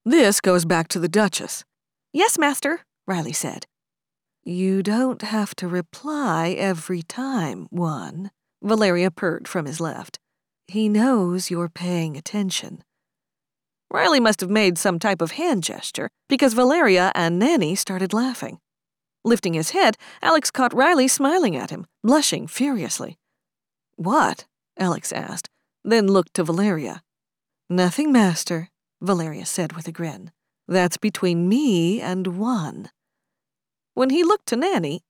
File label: Multiple Characters, audiobook